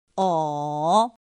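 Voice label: "ǒ", Chinese; pinyin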